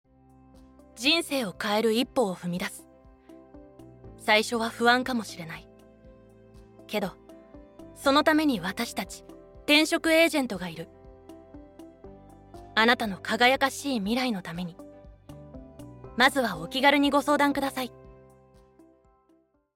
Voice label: NA 転職サイトCM BGon

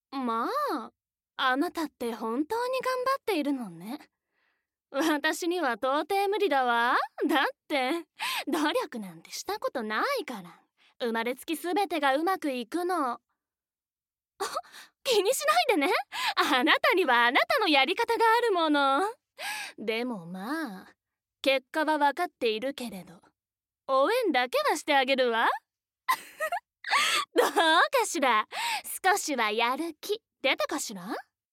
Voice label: セリフ 嫌味なお嬢様

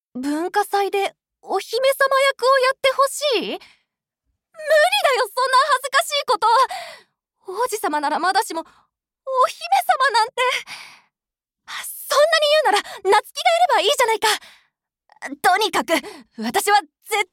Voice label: 中性的な女子高生

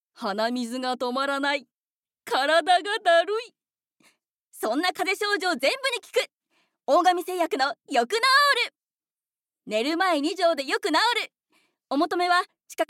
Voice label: ナレーション

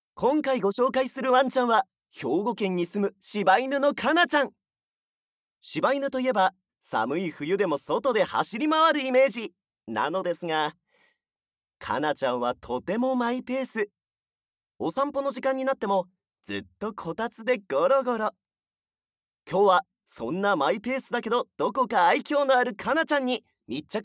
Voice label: ナレーション

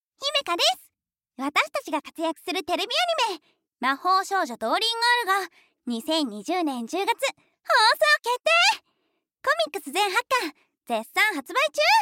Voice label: ナレーション

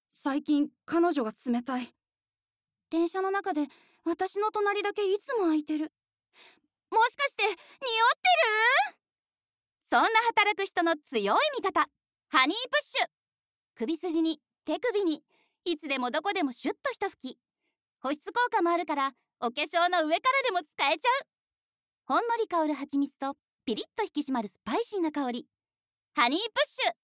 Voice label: ナレーション

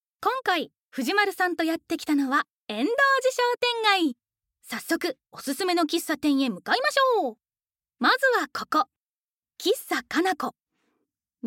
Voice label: ナレーション

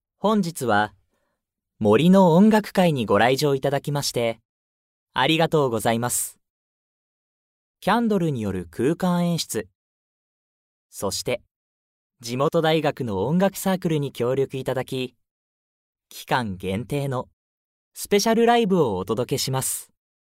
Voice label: ナレーション

